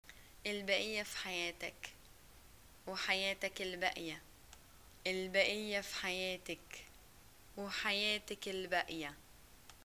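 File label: greeting death, Egyptian Arabic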